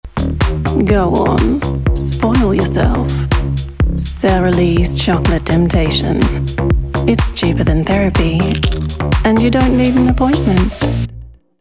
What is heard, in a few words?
Commercial; SaraLee